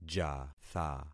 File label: "jatha", concat syllables; Affixing experiment